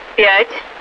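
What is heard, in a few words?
Russian speech, Mi-8MT RI65; DCS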